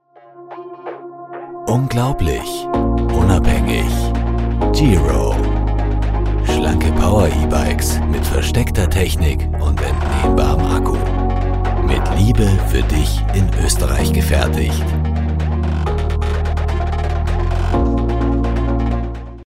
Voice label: Werbung innovativ; Geero

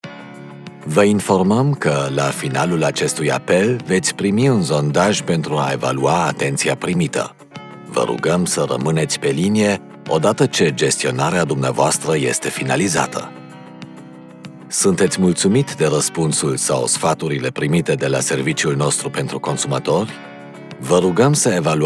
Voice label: Rumaenisch Telefon freundlich; Bosch

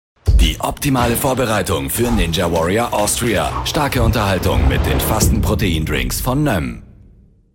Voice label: TV Patronanz stark

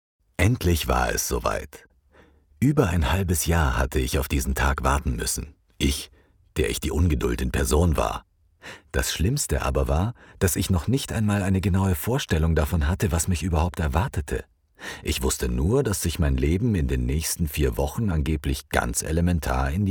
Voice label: Hoerbuch Roman; Mary

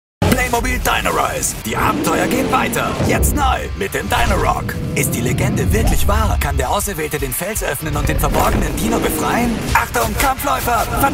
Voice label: Werbung action; Playmobil

